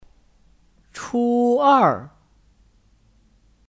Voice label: "初二", Chū èr

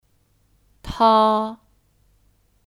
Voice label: "它", Tā

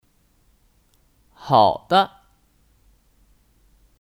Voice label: "好的", Hǎo de; Oke

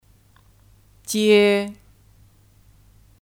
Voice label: "接", Jiē; Kata kerja; Menjemput

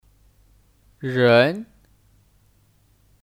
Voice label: "人", Rén; Kata benda; Orang

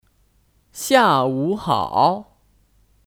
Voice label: "下午好", Xiàwǔ hǎo

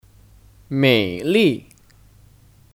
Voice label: "美丽", Měilì; Kata sifat; Indah

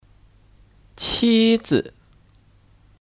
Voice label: "妻子", Qīzi; Kata benda; Istri

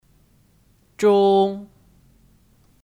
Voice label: "中", Zhōng; Preposisi; Tengah

